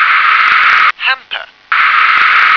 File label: "hamper", English